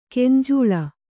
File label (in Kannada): "ಗಂಜಳ", Kannada